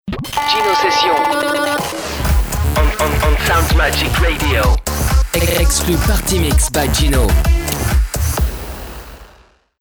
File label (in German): FR Cool; Fremdtake